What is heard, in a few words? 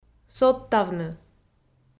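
"содтавны", Komi, female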